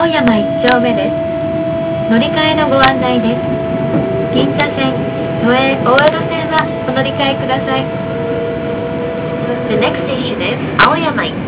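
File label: announce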